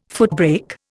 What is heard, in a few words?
"footbrake", Indian